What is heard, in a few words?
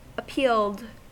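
"appealed", US English; pronunciations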